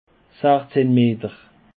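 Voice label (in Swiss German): "sachzehn meter", Alsatian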